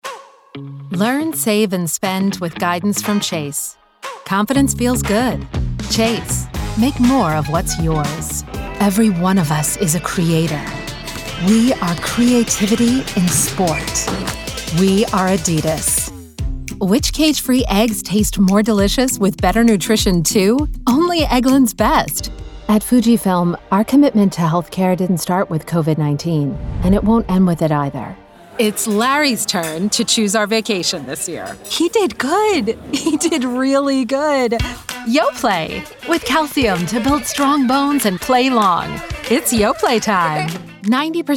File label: english american commercial